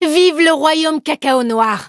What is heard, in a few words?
victory, fr